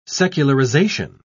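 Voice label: "secularization", British English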